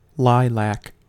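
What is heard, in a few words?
"lilac", American English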